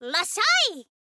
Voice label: "rasshai", girl